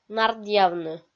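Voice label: "нардъявны", Komi, female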